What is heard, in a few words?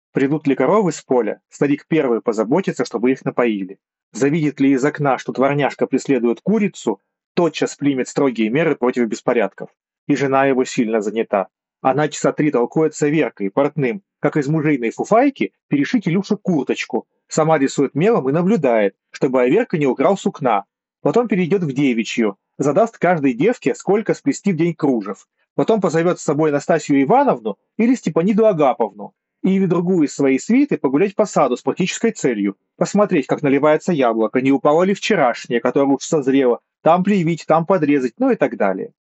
Mic; yandex drops